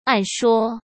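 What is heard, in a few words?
"按说", Mandarin Chinese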